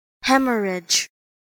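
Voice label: "hemorrhage", US English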